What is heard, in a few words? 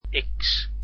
"x", Dutch alphabet